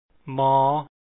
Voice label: m sound, lao

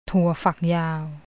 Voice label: stringbean, thai; ถั่วฝักยาว

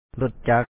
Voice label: "ລົດຈັກ", Lao; lo#t-ca1kkk motorcycle; Pronunciation Notes 4

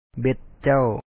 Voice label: "ເບັດເຈົ້າ", Lao; be1t ca2w your hook

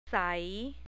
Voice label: "sai", thai

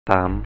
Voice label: "sǎ:m" 'three', Thai

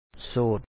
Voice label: "so3ok", Lao; single; ໂສດ

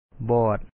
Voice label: "bO3Ot", Lao; blind; ບອດ

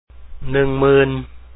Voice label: 10000, Lao; ຫນື່ງຫມື່ນ; nʉ̄ŋmīn

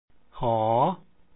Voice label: h sound, lao alphabet sounds

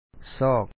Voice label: "sO3Ok", Lao; to seek, to find; ຊອກ

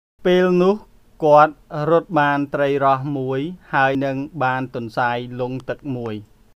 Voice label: Khmer Speech; Empires of the Word; a Language History of the World; by Nicholas Ostler, 2005

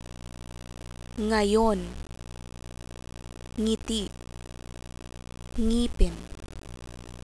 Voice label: ng initial, Tagalog, Consonants; Phonology

